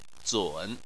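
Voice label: "zun" third tone, chinese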